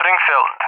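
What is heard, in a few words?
"Springfield", English speech; Allied Flight; CallSign; DCS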